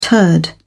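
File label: "turd", British English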